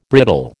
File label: "brittle", American